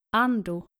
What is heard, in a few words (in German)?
"ando", skandinavische jungennamen